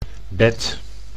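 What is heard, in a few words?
"bed", Dutch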